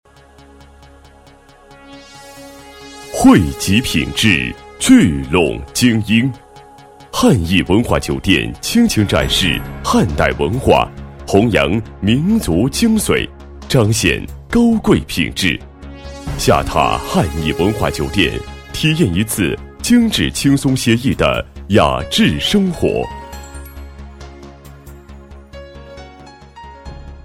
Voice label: 男 广告 酒店 定制婚礼

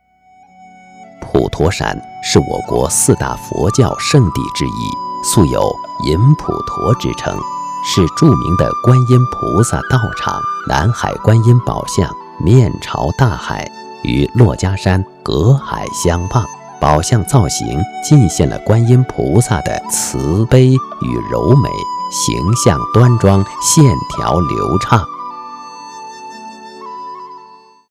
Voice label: 男, 国语男声配音; 普陀山; 模仿任志宏样录音

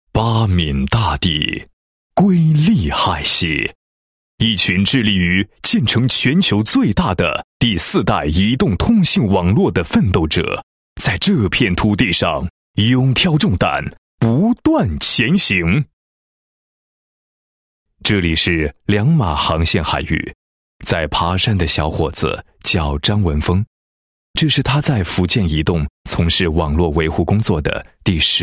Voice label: 男 企业宣传专题; 加油·奋斗者 福建移动宣传

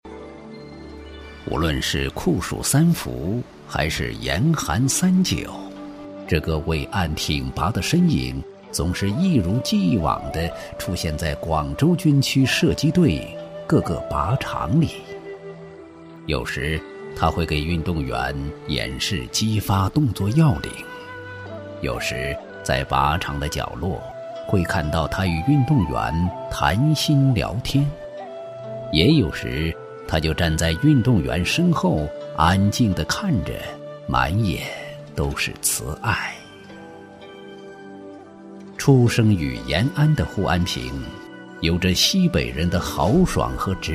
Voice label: 男声 磁性浑厚 人物专题 温婉感性

